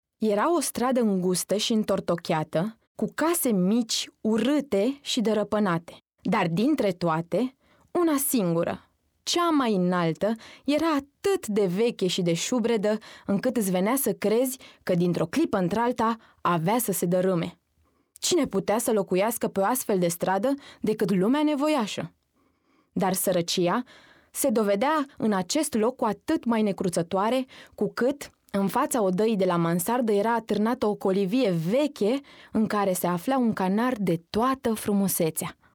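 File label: romanian female narration; voice demos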